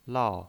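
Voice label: "lao", pinyin, Mandarin Chinese, fourth tone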